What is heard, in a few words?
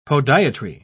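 "podiatry", British English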